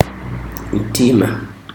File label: "heart", Chikunda